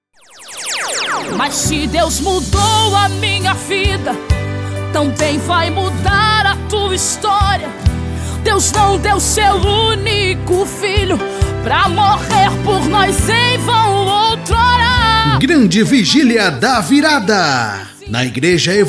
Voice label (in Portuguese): vinheta gospel; vigilia da virada